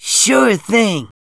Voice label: "Ok normal", Male, English; gangsters

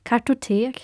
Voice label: cardfile, swedish; Aristosoft, Inc.; icons

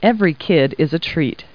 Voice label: people, kid

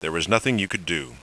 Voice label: sad - nutin u could do; Spades Deluxe 1.6

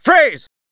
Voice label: "Freeze", Male, English; gangsters

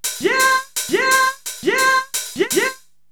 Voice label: hh yeah; Techno Maker SPCS

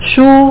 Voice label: book Mandarin; WordMatch

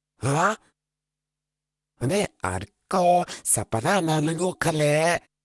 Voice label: talk Argue

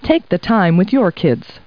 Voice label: people, kid

